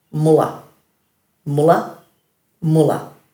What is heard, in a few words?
"mula", Dhurga; dulagaal